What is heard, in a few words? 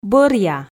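"бӧръя", Komi, female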